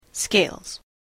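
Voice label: "Scales", English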